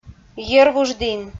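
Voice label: "йӧрвуждін", Komi, female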